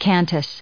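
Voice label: "cantus", US English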